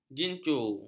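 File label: "gincu", Malay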